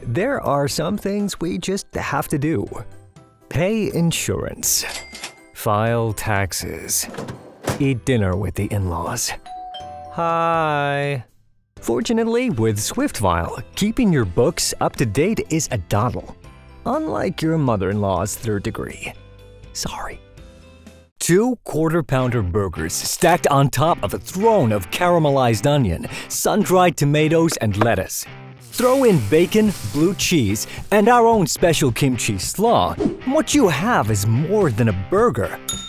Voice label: American Demo Reel